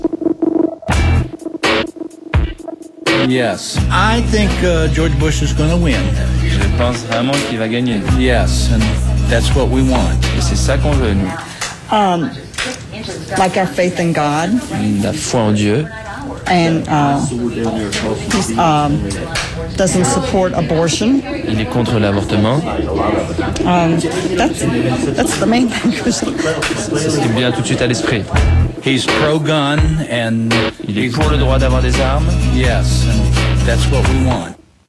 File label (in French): micro-trottoir; Elections US